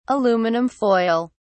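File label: "aluminum foil", British English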